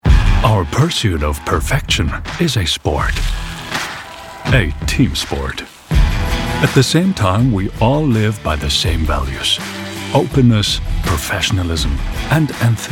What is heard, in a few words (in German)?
Hoerprobe Englisch; Bachmann Group